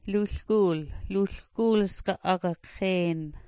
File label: "lulguul", smalgyax